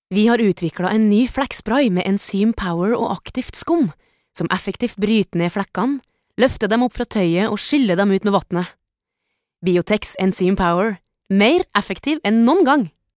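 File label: com tronder, norwegian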